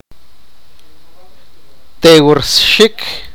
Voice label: sipakapense; freeze